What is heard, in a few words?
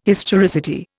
"historicity", Indian